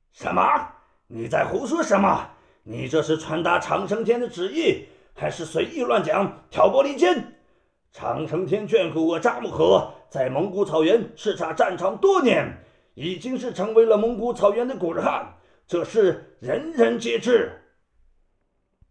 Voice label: 对话配音; 扎木合与萨满

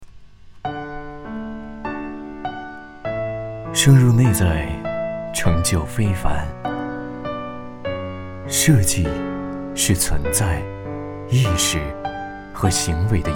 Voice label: 个人宣传片

